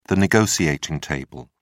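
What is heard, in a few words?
"negotiating table", British English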